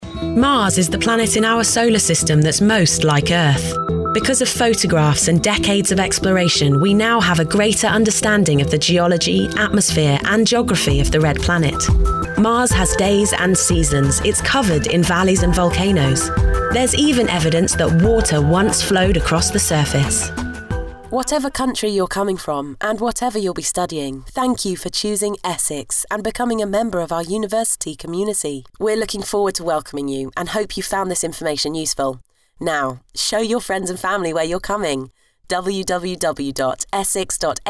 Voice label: Documentary and Narration

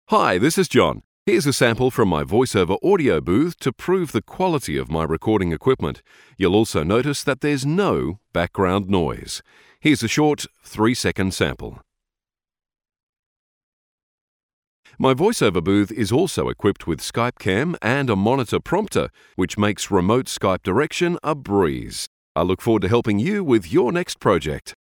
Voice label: Dry VO

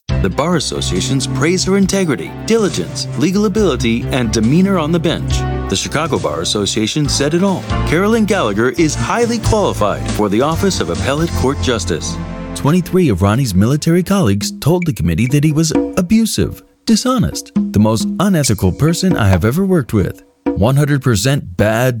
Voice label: Political Spots

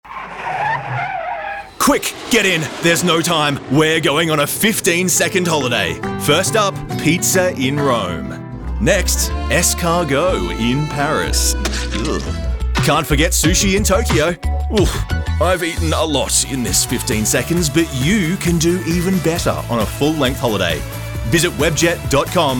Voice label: Commercial, male voice over

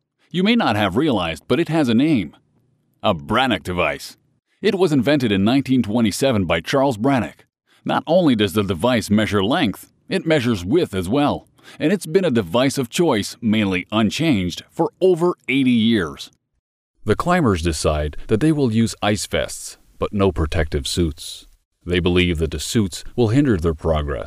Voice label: ENG Documentary Narations

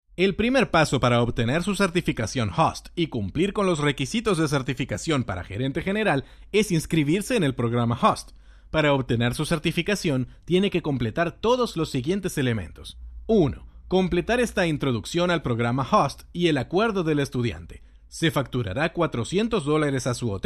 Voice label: Narration Spanish

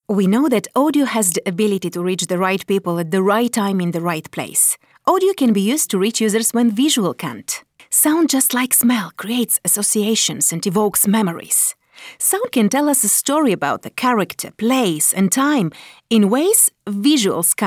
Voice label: Podcasting English

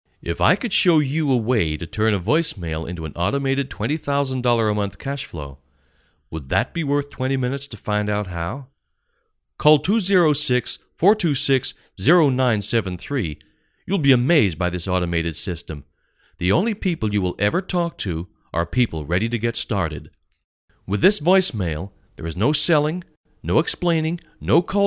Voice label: American Advert

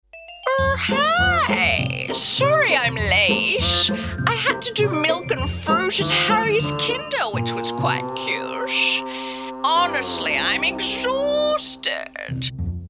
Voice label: Pretentious aussie

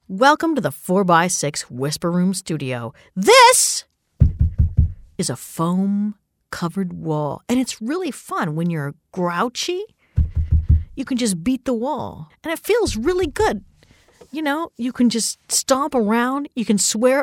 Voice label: studio sample

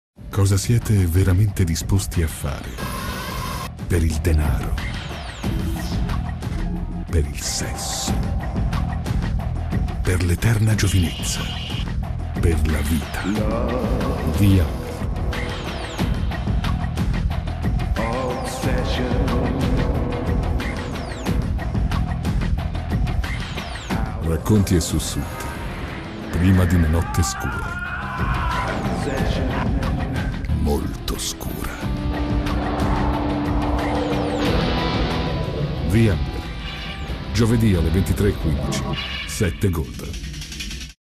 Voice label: Trailer; Movie Trailer Voice Over

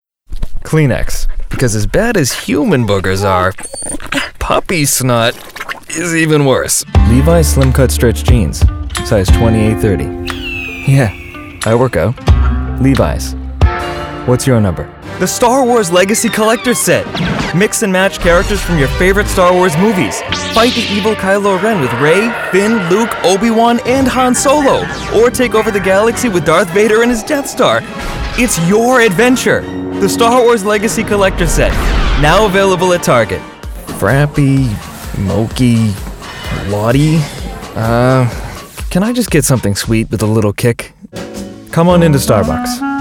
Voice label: COMMERCIAL

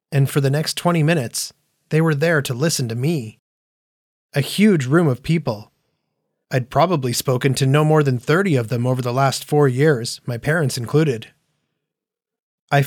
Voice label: Audiobook Narration

